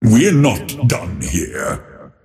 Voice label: lasthit pain; dota; earthshaker; arcana

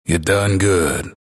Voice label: announcer victory; dlc bastion

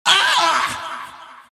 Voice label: monkey crown pain; dota; monkey king

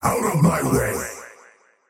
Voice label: last hit pain, faceless void; dota

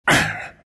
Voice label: drag pain; dota

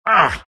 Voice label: tusk pain

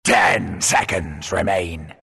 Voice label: announcer ten secs remain, pirate; dlc workshop; capn

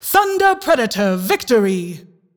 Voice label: announcer team victory thunder predator

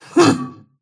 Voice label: anger; snapfire

